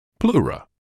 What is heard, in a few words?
"pleura", English